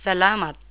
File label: tagalog thank you